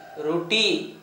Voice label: "ruti", Gta, Dialect: Hill; bread

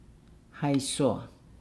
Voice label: "friendship", Nukuoro

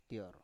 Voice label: "tior", Biak

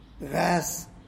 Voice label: seven, tlacolula

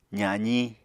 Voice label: "zaziñ", Apatani

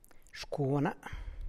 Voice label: My alms, San Lucas Quiaviní Zapotec; xcuana; Mi limonsna

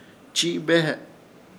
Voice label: "Chiibehe", Ishir Chamacoco; conbiar

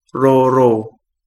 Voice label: long, Kapingamarangi

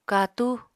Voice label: "katú", Apatani

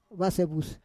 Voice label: "vasevus", Roon

